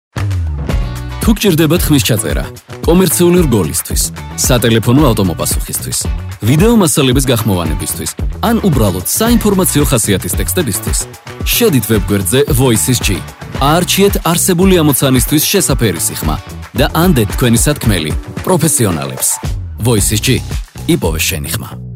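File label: German, male, commercial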